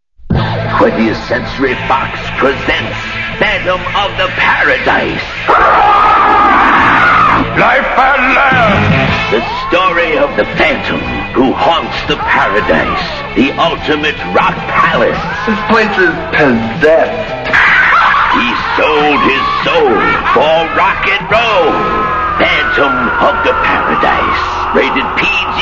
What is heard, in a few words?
Radio Spot; Phantom Of The Paradise